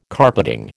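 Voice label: "carpeting", American English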